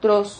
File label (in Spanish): "tros", Silabas